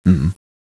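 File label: Vox, voices, Japanese; Lusikiel; kings raid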